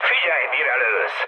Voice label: player friendly fire, mood high, speech hun; War Thunder

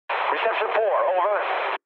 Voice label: eng chat, speech eng

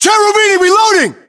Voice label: US inform reloading, battlechatter, english

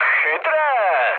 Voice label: trick, speech cze, mood low; War Thunder

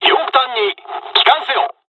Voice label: dialogs japanese; War Thunder

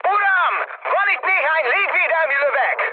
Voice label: damaged aa, mood high, speech hun; War Thunder

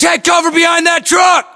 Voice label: order cover truck, US; localized english; battlechatter

